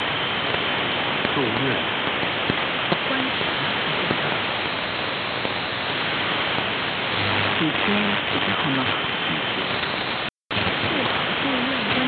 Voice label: Voice of Vietnam AM; 7285khz

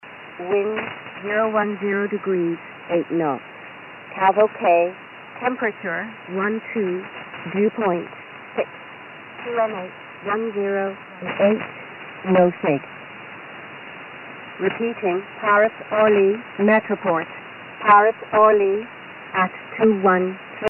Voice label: USB Shannon Volmet; 5505khz

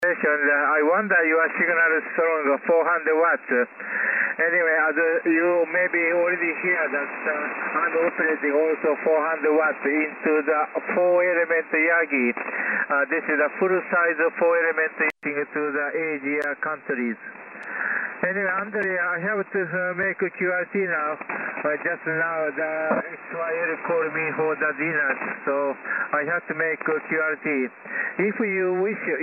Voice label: Hamradio LSB

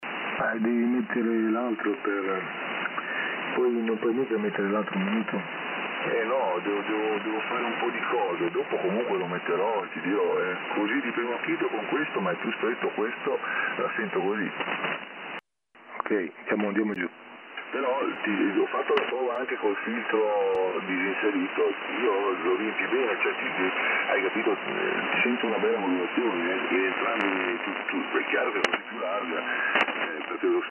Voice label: Hamradio LSB

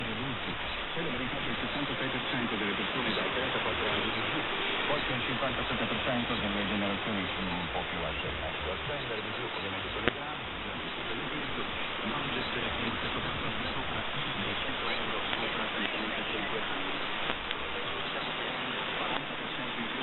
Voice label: 1170khz; R. Capodistria; X-One-AD370

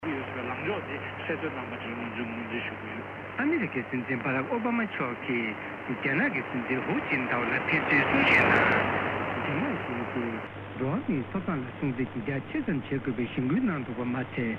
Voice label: Radio Free Asia 9690khz; Sony Eton

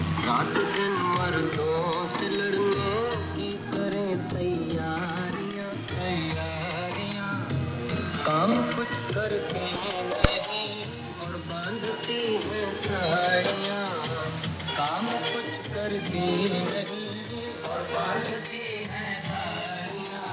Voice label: 6155khz; All India Radio

